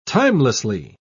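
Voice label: "timelessly", British English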